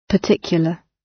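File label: "particular", British English; Time, history; Thời gian, lịch sử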